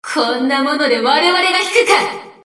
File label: Enemy Voice Damaged Under Attack Debuffed; Non-Treaty Cruiser Water Princess